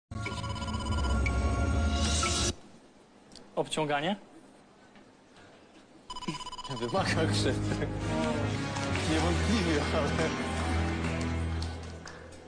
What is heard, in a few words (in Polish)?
teleturniej